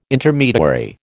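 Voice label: "Intermediary", American English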